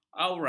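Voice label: "aura", Malay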